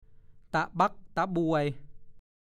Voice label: ตะบั๊กตะบวย, ภาษาโคราช; เป็นคำพูดในทำนองว่า พูดทำไม พูดหาอะไร

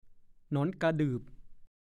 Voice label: "หนอนกระดืบ", ภาษาโคราช

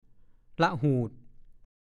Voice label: "ล่ะหูด", ภาษาโคราช; รั่ว

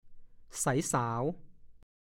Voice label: "ไสสาว", ภาษาโคราช; ดูคำว่า ไสส่าว

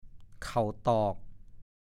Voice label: "เข่าตอก", ภาษาโคราช

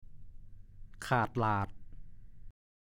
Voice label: "คาดลาด", ภาษาโคราช

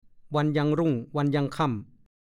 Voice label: "วันยังรุ่งวันยังค่ำ", ภาษาโคราช; ตลอดวันตลอดคืน ทั้งวันทั้งคืน

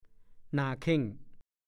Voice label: "หน่าเค่ง", ภาษาโคราช; หน้าบึ้ง หน้าตึง

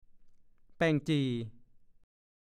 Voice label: "เปี่ยวกินตีน", ภาษาโคราช; เท้าเป็นเหน็บชา เหยี่ยวกินตีน ก็พูด

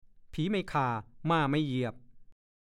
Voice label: ผีไม่ข่าม่าไม่เหยียบ, ภาษาโคราช; (สำนวน) ผีไม่ฆ่าม้าไม่เหยียบ คนดีพระย่อมคุ้มครอง

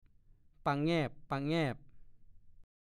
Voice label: "ปะแงบ ๆ", ภาษาโคราช; (ปะแงบประแงบ) ดูคำว่า ปะงาบ ๆ

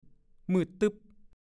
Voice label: "มืดตึ๊บ", ภาษาโคราช; มืดสนิท

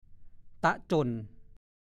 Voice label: ตะจ่น, ภาษาโคราช; จนกระทั่ง

